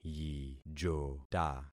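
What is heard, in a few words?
"yijota", concatenated syllables; Affixing experiment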